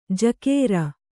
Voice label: "ಝಕಾರ", Kannada

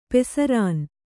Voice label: "ಪಸರಣ", Kannada

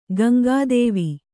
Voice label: "ಗಂಗಾದೇವಿ", Kannada

